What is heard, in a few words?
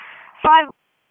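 Fire Department HQ Dispatch; RFD; p25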